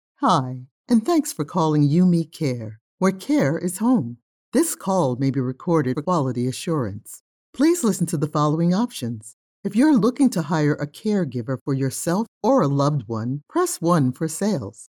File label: IVR